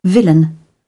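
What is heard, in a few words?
"villain", British English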